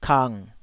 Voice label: "kang3", taiwanese syllable